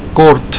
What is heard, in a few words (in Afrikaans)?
"kort", Afrikaans